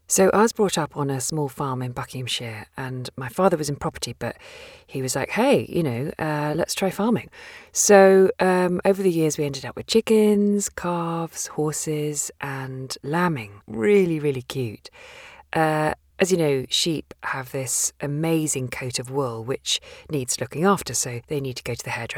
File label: Conversational Natural Family Story